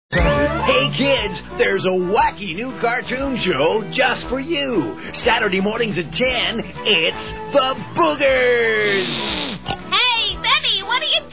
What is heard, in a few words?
Commercials; Freekin Weird Audio